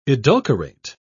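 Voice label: "edulcorate", British English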